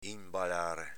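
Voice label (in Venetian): "inbalare", Veneto dialect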